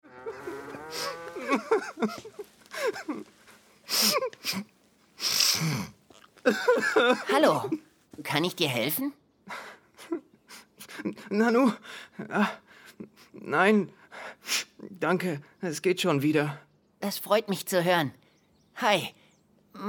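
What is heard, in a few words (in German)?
Hörspiel; Leo Die Abenteuermaschine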